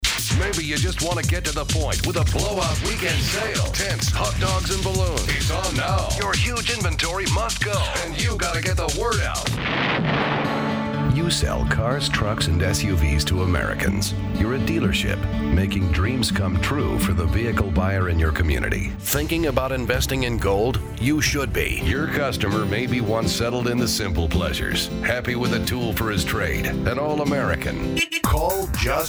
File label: Promos